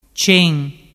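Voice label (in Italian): "cen", dialect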